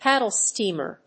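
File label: "paddle steamer", English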